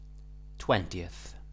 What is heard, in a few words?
"twentieth", English